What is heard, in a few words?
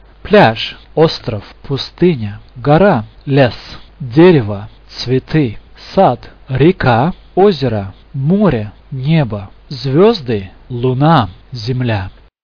russian nature